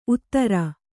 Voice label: "ಊದರೆ", Kannada